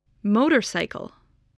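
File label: "motorcycle", English word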